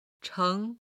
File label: "cheng2", chinese